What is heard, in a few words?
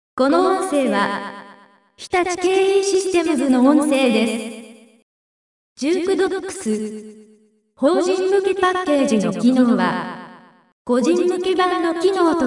echo; 肉声に迫る自然な発声（女声）; 女声サンプル; エコーサンプル; ユウカ; サンプル内容:人材教育